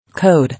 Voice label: "Code", English